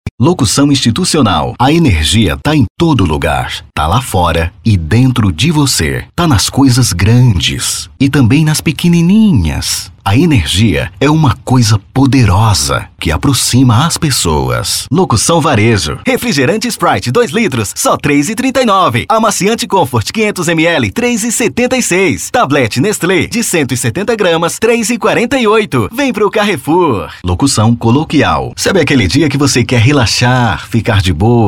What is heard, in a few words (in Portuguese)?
voz impacto grave gravacao de vinheta spot propaganda comercial para lojas de tecidos; tintas mercados mercearias